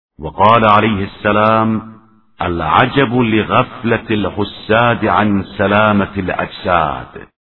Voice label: arabic; nahjalbalaghe; hekmat 225